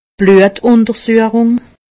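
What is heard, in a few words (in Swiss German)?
"bluetuntersuchung", Alsatian; medecine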